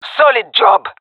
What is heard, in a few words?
compliment, jungle fem; vo; agents; Source Model Packs; Counter Strike Model Pack; CS2; jungle fem epic